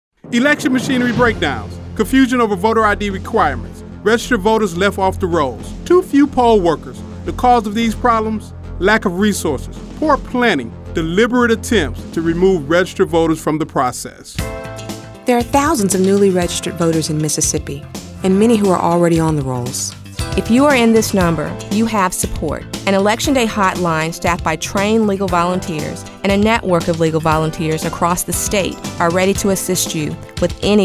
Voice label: Radio; NAACP; GOTV